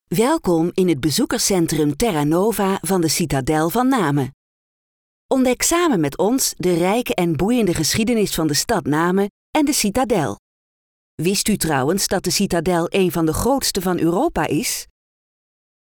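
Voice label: Dutch, female, narration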